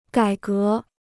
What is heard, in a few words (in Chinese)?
"改革", Chinese